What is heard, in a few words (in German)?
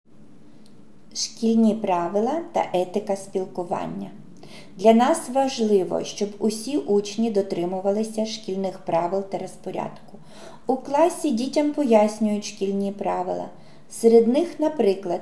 Schulregeln, UKR